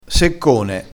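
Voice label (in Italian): "seccone", vocabolario dialettale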